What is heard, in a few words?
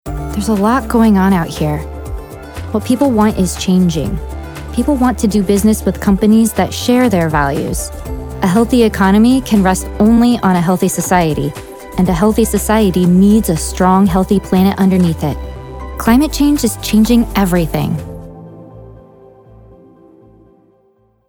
Matter of Fact Conversational Natural